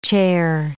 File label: "chair", English